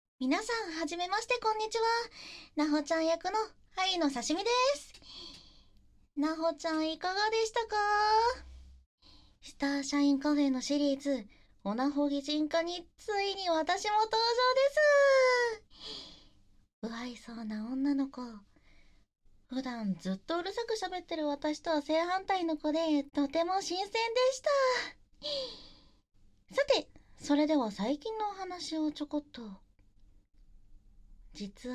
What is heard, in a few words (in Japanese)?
フリートーク, ASMR; 突然現れた無表情なメイドは愛用してたオナホだった!?～生意気な女の子に淡々と性処理されちゃいます～